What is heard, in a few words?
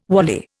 "wally", Indian